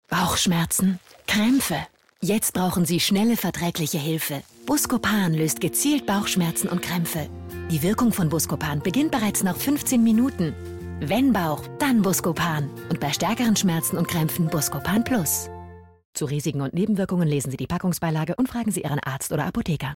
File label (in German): TV warm; buscopan